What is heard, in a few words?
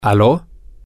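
hello, spanish, male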